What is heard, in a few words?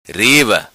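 "Riva", patua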